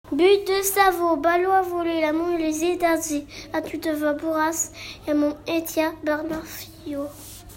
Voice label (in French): mise en voix poeme; Friot